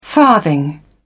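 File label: "farthing", British English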